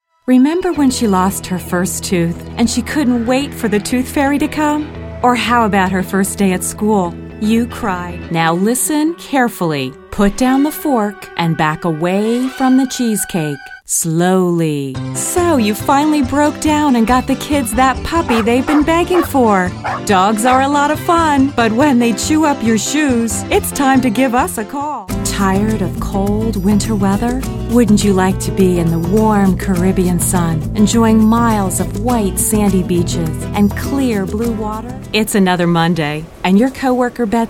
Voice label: commercial